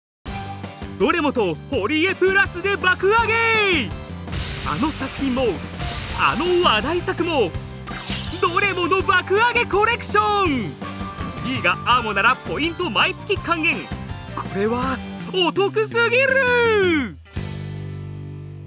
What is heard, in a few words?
voice sample CM